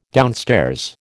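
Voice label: "downstairs", American English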